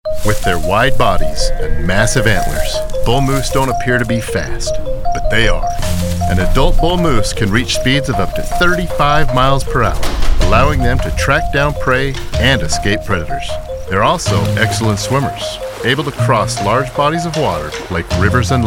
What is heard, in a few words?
Narration